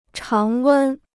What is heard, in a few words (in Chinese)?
"常温", Chinese